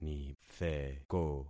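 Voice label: "nitheko", concatenated syllables; Affixing experiment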